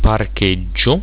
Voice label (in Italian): "parcheggio", italiaans; reizen